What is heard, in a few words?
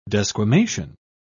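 "desquamation", British English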